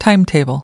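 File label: "timetable", English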